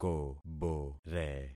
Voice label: "kobore", concat syllables; Affixing experiment

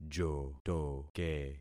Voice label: "jotoke", concat syllables; Affixing experiment

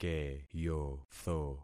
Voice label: "keyotho", concat syllables; Affixing experiment

